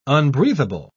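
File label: "unbreathable", British English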